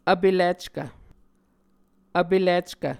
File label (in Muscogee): "vpelickv", Muscogee